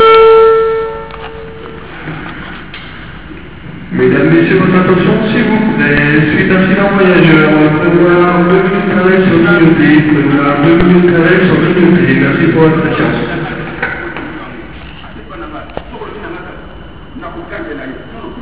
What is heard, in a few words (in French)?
message, metro